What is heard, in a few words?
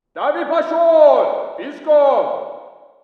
walla rus mountain conv; modern warfare 2; amb walla